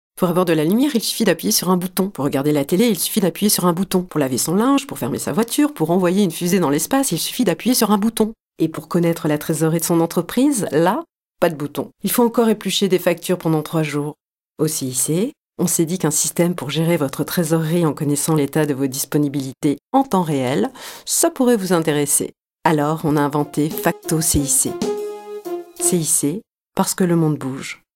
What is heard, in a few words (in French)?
Voix off pub bande annonce musique